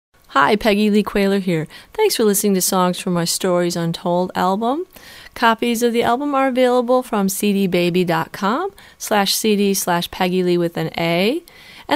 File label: bbs radio promo